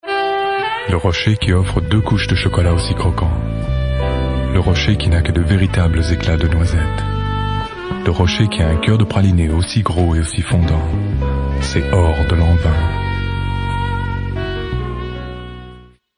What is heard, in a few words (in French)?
VF Charmeur voix off voice over; Lanvin